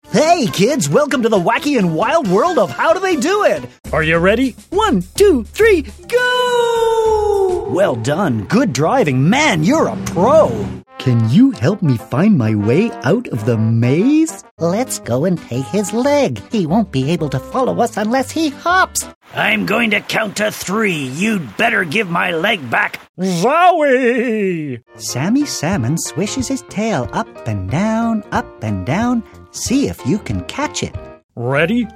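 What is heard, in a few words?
American, Toys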